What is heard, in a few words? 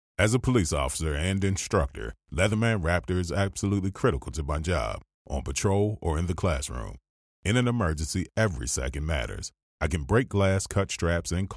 english american commercial